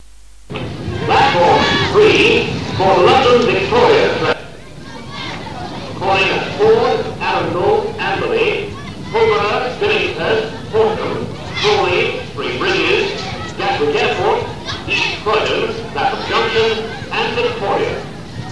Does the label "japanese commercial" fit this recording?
No